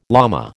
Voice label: "llama", American

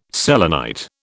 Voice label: "selenite", British

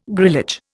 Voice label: "grillage", Indian

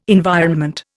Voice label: "environment", Indian

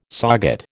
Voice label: "SOGAT", American

